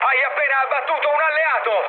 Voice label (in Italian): player killed ally, mood med, speech it; War Thunder